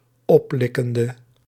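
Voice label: "oplikkende", Dutch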